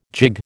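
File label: "gigue", American English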